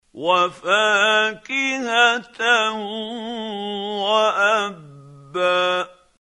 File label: quran, Hussary, tafseer; Abasa